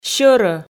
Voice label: "сьӧрӧ", Komi